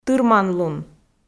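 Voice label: "тырманлун", Komi, female; audio dict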